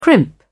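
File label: "crimp", British English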